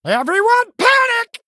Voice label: Male Gnome Attack

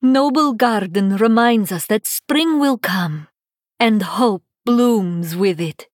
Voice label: Female Draenei happy Noblegarden

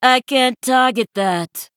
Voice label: Female Sanlayn Emote ERROR TARGET

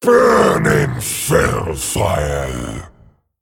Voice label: Male Dragon Greetings

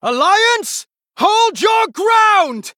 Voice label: Male Human; Anduin

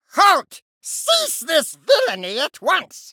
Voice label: Male Murloc; Good Fight; Player Start

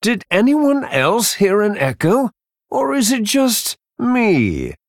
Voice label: male human, Flavor

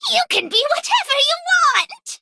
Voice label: Female Harpy

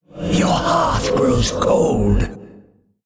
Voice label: Male Elder God Emote Greetings

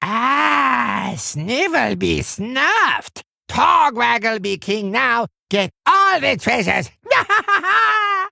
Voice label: Male Kobold Victory Post Explosion; Togwaggle

